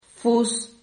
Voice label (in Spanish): "fus", Silabas